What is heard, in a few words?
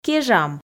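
"кежам", Komi, female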